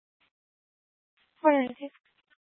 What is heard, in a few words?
Tempe PD, scanner; Rgnl Wireless Co-op (RWC)